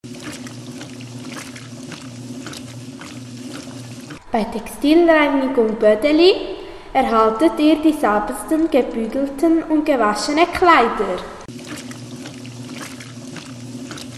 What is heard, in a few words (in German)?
Werbung; Unterseen